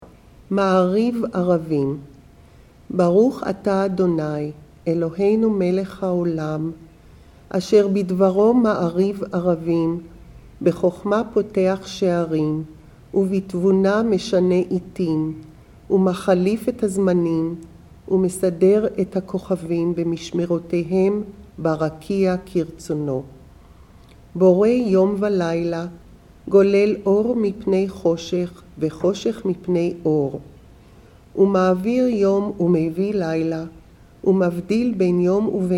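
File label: Ma'ariv Aravim, female; Shabbat Evening Readings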